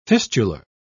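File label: "fistular", British English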